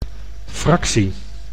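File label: "fractie", Dutch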